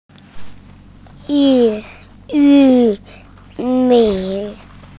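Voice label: "yu4 mi3", Mandarin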